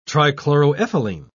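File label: "trichloroethylene", British English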